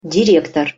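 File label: "direktor", Russian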